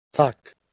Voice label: "thak", Proto Germanic